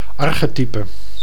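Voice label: "archetype", Dutch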